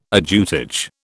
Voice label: "ajutage", British